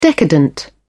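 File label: "decadent", English, British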